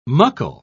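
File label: "muckle", British English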